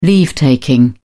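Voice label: "leave-taking", English, British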